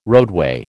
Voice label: "roadway", American